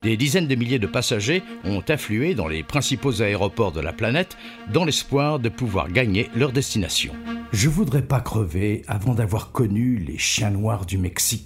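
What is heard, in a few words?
French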